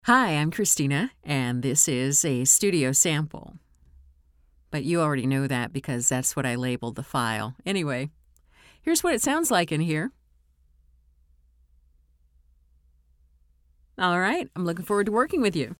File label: studio sample